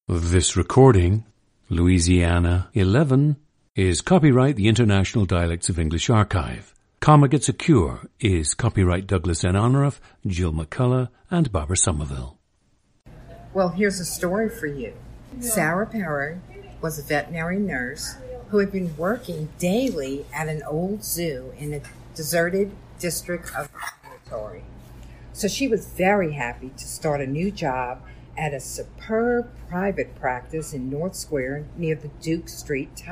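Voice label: louisiana, International Dialects of English; Louisiana 11; a 57-year-old woman from the Lakeview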